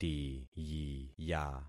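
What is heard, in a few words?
"tiyiya", concatenated syllables; Affixing experiment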